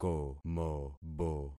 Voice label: "komobo", concat syllables; Affixing experiment